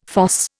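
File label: "fosse", Indian English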